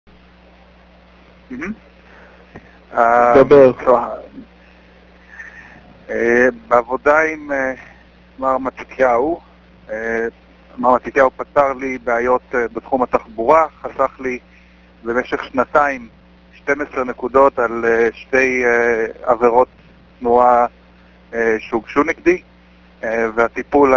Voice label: hebrew; Arcade Town Court Traffic Defense Attorney